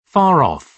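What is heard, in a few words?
"far-off", British English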